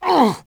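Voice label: Twi male damage; XBOX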